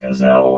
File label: "gazelle", robot vox